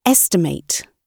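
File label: "estimate", British English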